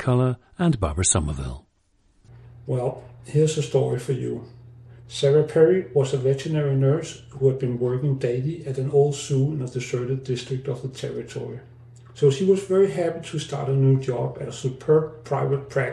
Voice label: denmark, dialects